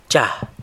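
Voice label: "cha", San Lucas Quiaviní Zapotec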